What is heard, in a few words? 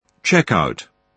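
"checkout", British English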